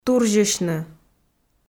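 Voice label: "турзьысьны", Komi, female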